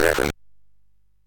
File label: MOS6581R3, Electronic Speech Systems